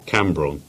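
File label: "Camborne", Cornish; place-names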